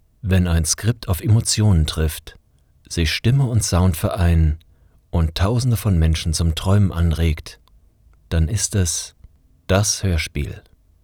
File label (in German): Das Hörspiel